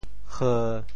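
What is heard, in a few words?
"heu1", Teochew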